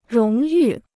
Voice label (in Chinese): "荣誉", Chinese